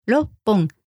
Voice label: "roppon", Japanese

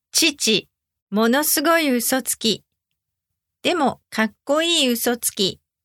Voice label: ちち、ものすごい嘘つき。でもかっこいい嘘つき！ Normal Speed

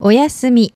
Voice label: "oyasumi", Japanese

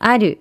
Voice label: "aru", Japanese

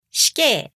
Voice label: "死刑", Japanese

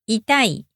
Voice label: "痛いたい", Japanese

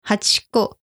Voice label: "hachiko", Japanese

